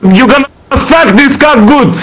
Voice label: mumble; svencoop; cracklife; gman